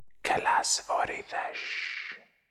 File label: incantations whispers masc forward standard; psfx